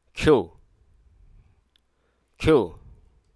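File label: Hruso Aka; cough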